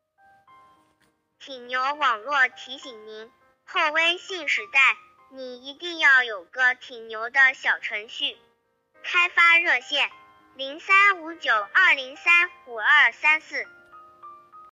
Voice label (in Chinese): 童音介绍